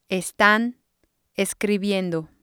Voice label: "estan escribiendo", spanish